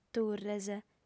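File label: "turres", female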